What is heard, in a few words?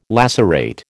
"lacerate", American